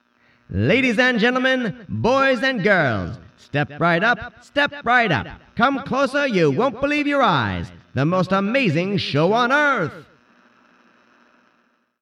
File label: Character Voice Old Time Announcer